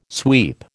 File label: "sweep", American